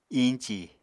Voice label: "iñci", Apatani